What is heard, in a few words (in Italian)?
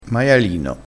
"maialino", crespinese, vocabolario dialettale